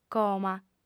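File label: "coma", female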